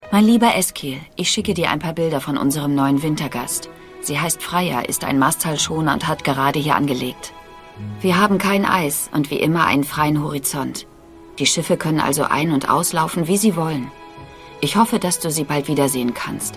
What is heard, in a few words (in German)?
Synchronsprecher; Deutsche Synchronkartei